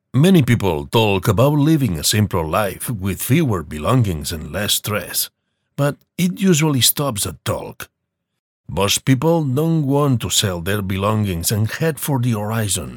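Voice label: english for spanish male info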